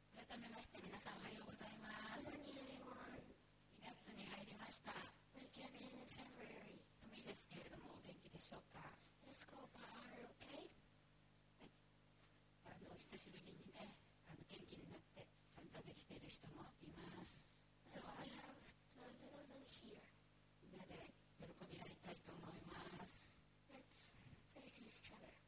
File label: announce; sunday message 2024